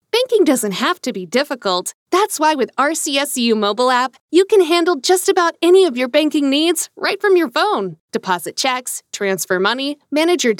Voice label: Casual Conversational

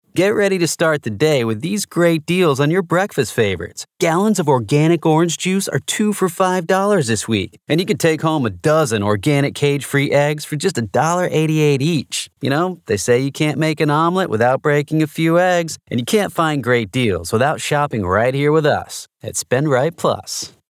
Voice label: Professional

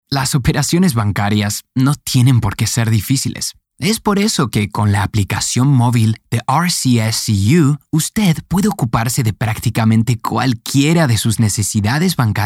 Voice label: Professional

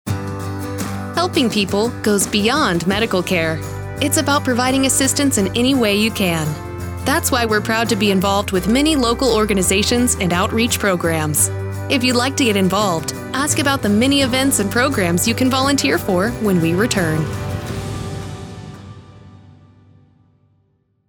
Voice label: On Hold General Medical

